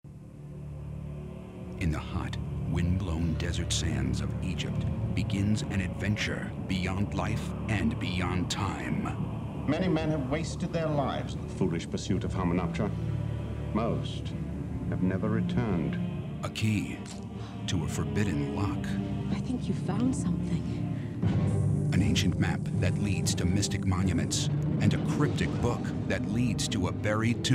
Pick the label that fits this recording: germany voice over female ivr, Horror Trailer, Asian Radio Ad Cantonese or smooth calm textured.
Horror Trailer